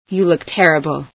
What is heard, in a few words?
you look bad, female sci